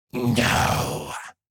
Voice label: Demon beast imp monster "no"